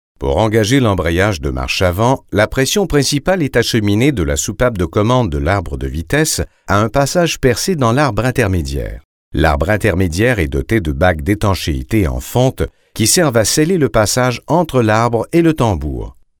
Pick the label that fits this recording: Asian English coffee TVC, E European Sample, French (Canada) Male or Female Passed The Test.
French (Canada) Male